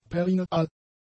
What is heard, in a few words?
"perineal", German; adjectives